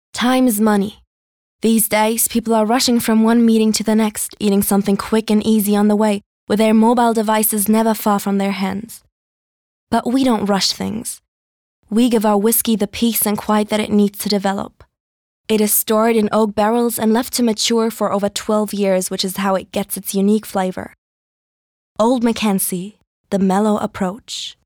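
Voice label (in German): US English, Dynamisch; OldMacKanzie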